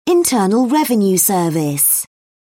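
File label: "internal revenue service", British English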